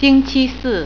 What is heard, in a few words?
"Thursday", Mandarin